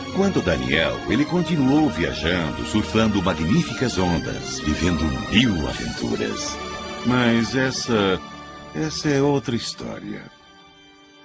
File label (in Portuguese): Narrador